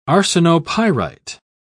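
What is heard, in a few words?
"arsenopyrite", British English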